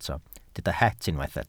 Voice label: "het", Welsh